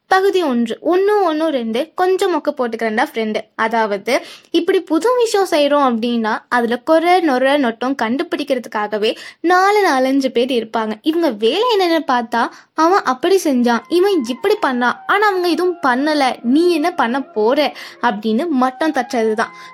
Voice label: Tamil, Female

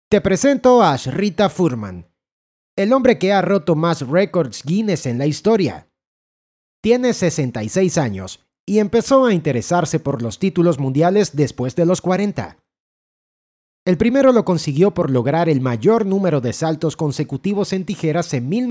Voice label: Spanish (LA) Male

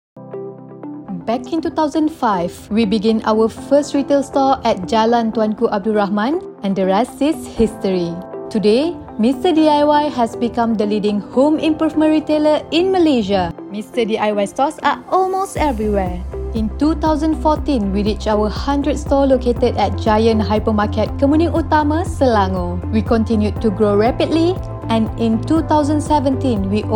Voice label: English (Asian), Female

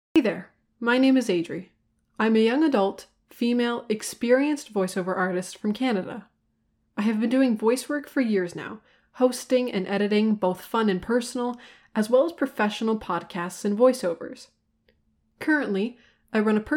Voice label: English (US), Female

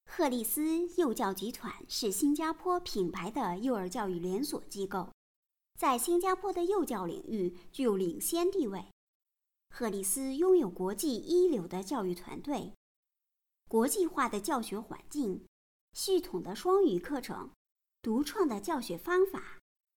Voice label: Chinese, Female

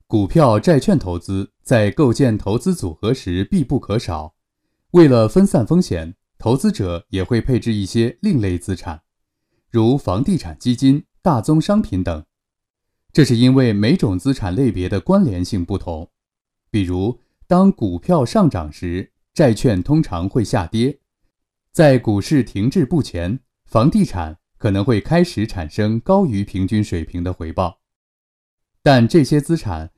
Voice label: Chinese, Male

